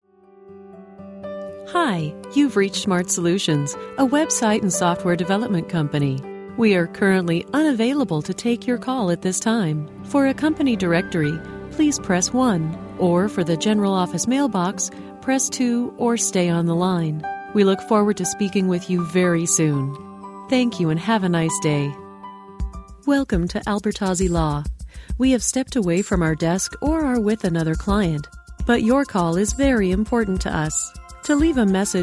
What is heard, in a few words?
Telephone